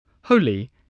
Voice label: "holy", English, spelling